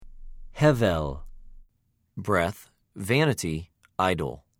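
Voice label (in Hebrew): "הֶ֫בֶל", Hebrew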